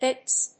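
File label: "Hits", English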